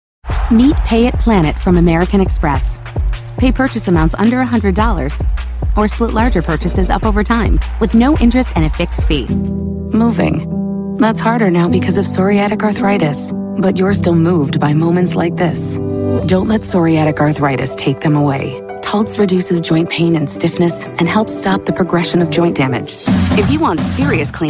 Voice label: women commercial; Hip and friendly millennial with a textured and relatable sound

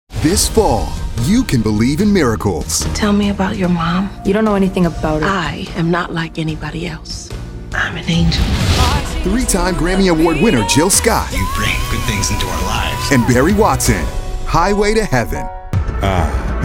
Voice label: men promos; Warm, trustworthy, and sophisticated.

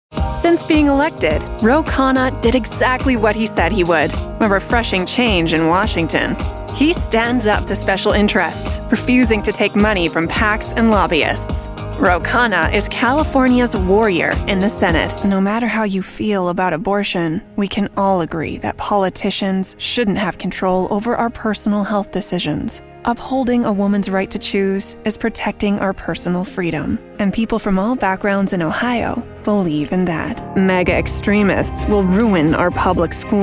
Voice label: women political

